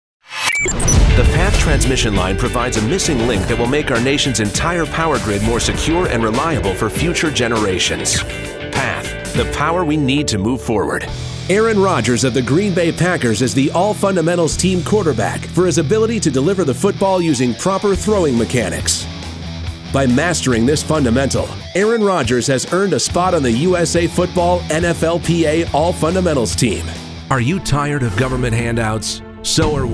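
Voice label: voice over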